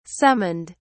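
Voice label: "summoned", British English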